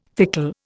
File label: "fickle", Indian